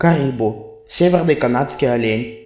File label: caribou desc ru; mammals; deer; tuxpaint-stamps